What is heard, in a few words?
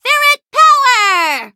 battle, en; Cookie Run